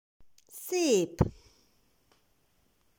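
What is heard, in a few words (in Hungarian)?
"Szep", Hungarian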